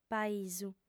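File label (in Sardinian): "paisu", female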